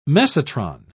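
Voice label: "mesotron", British English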